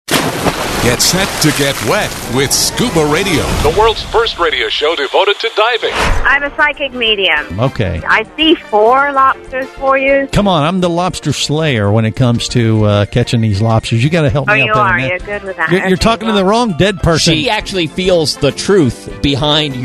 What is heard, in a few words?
Promo